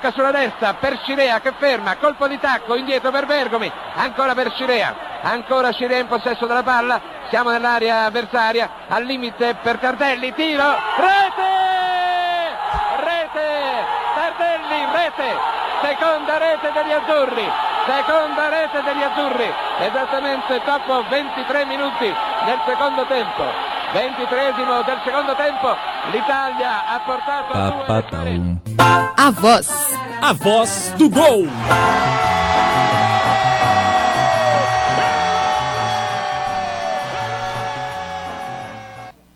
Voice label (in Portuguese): LOCUTOR ITALIANO NARRA GOL; GOL DE TARDELLI NA COPA DE 1982; jogo da Itália contra Alemanha no Mundial de 1982 na Espanha